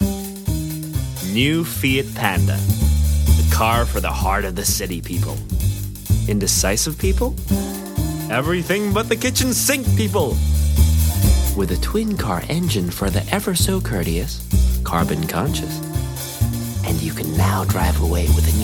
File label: English US